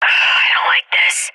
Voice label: scared; Counter Strike Model Pack; CS:GO

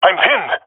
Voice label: pinned, jungle male epic; Source Model Packs; Counter Strike Model Pack; CS2

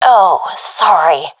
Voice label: sorry, professional fem; vo; Counter Strike Model Pack; CS:GO

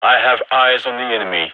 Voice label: radio enemy spotted; vo; gign

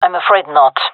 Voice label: disagree, gendarmerie fem; vo

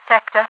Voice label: "sector", radiovoice; Half Life 2

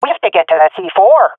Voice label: cover defuse, swat fem; vo; Counter Strike Model Pack; CS:GO

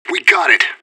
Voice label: radio bot cheer; fbihrt

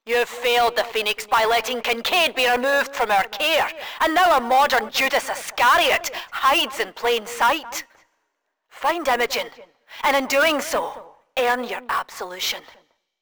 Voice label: rant; campaign wildfire; imogen

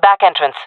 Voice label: "back", fem; player vo jungle fem

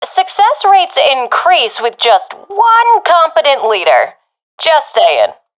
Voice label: request leader, professional fem; vo; agents; Source Model Packs; Counter Strike Model Pack; CS2

